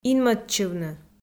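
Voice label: "инмӧдчывны", Komi, female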